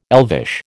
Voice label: "elvish", American

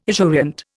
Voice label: "esurient", Indian

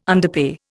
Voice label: "underpay", Indian

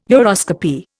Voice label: "uroscopy", Indian

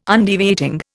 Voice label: "Undeviating", Indian

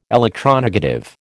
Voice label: "electronegative", American

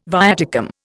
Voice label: "viaticum", Indian

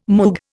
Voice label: "Moog", Indian

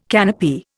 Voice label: "canopy", Indian

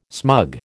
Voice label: "smug", American

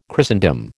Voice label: "Christendom", American

